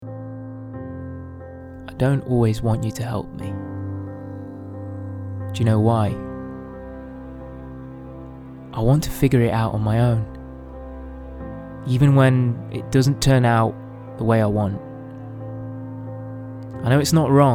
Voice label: male english slight midlands accent charity; appeal; english and italian; make something